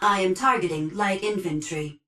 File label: titan Vanguard auto Engage Grunt; Titanfall 2